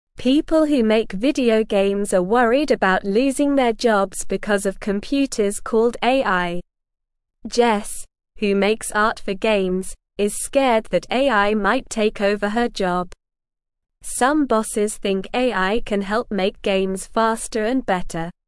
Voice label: English Newsroom Beginner SLOW Reading; Game Makers Worry About AI Taking Their Jobs

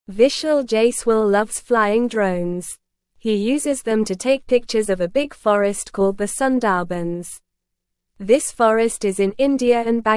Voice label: English Beginner NORMAL Reading; Vishal Jaiswal and His Drone Adventures in Sundarbans

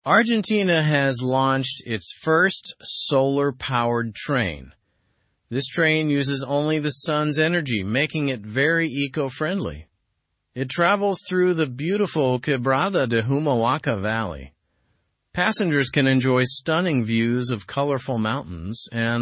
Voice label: english news lower intermediate; sustainable travel on argentina s solar train

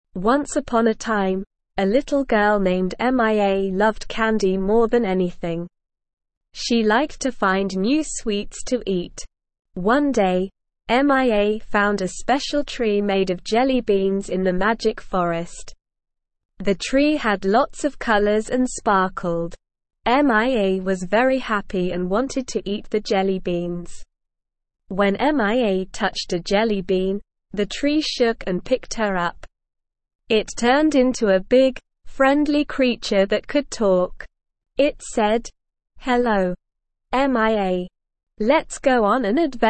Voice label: ESL Short Stories for Kids Beginner SLOW Reading; The Jelly Bean Tree

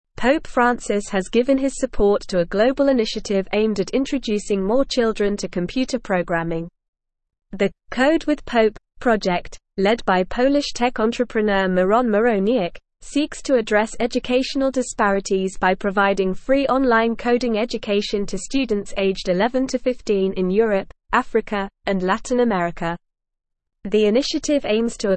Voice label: English Newsroom Advanced NORMAL Reading; Pope Francis Backs Free Coding Education for Children

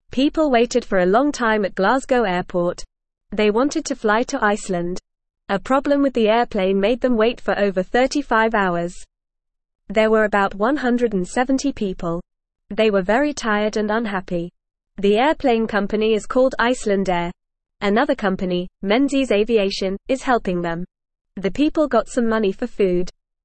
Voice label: English Newsroom Beginner FAST Reading; Long Wait for Iceland Flight

